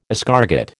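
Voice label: "escargot", American English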